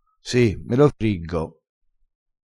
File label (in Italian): "me lo friggo", crespinese